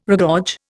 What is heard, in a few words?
"regorge", Indian